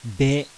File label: "BE", kana